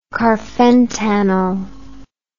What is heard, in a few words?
"carfentanil", English